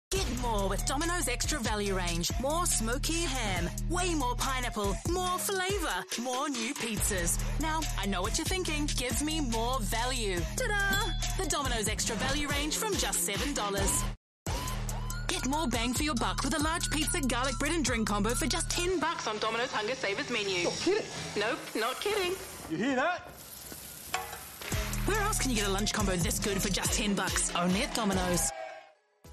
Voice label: english new zealand promos